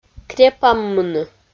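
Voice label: "крепаммыны", Komi, female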